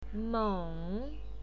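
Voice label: "meng" third tone, pinyin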